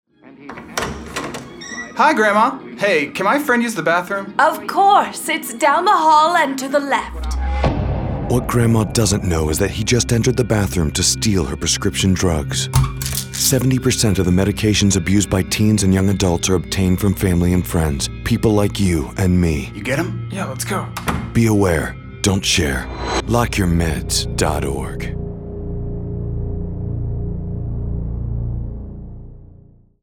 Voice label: Radio Spot; Lock Your Meds